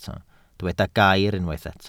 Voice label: "gair", Welsh

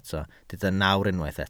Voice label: "nawr", Welsh

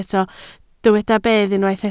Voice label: "bedd", Welsh

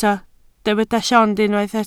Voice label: "llond", Welsh

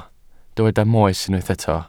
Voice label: "moes" Welsh

